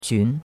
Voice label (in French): "qun2", chinois; Cours de Chinois